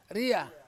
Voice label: "ria", Ansus; blood

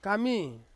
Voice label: "kami", Ansus; stone

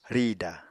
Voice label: "rìda", Apatani

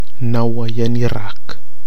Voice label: "nawa ia nirak", Nafe (Kwamera); n my tendon

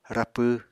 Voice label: "rapw", Apatani

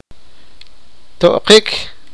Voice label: sipakapense; listen to

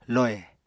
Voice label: "lohè", Apatani

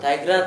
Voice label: Gta peck, Dialect: Hill; peck (vb.)

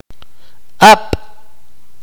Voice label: "hear", akateko

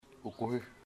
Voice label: cloud, chamacoco

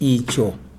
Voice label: "that", Chikunda

